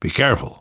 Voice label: warn careful, namvet, survivor voice; Left 4 Dead 2; left4dead2 dlc2

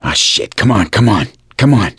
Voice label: revive friend loud, voice; gambler

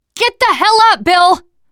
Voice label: revive friend loud, teen girl

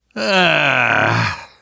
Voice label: reaction negative; Left 4 Dead 2; left4dead2 dlc3

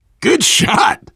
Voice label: nice shot, voice, biker; Left 4 Dead 2; survivor

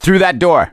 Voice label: misc directional, voice; gambler

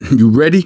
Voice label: ask ready, survivor voice manager; Left 4 Dead 2; left4dead2 dlc3

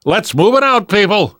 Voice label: move on, survivor voice; namvet; Left 4 Dead 2; left4dead2 dlc2

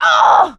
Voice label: hurt major, survivor voice; producer

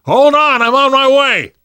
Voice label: to the rescue, namvet, survivor voice; Left 4 Dead 2; left4dead2 dlc3

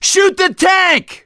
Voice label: battlecry tank, survivor voice; gambler

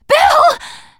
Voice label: name, teen girl; bill

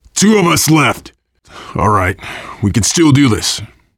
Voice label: double death response, voice, biker; Left 4 Dead 2; left4dead2 dlc3; survivor

